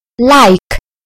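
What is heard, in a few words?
"like", British English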